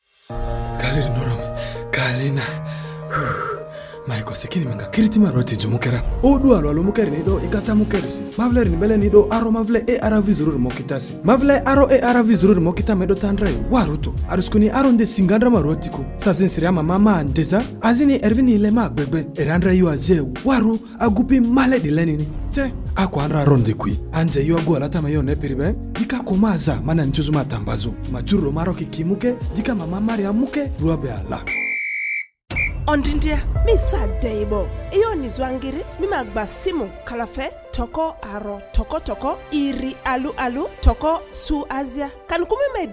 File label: Time Up HIV Spot New on Treatment Lugbara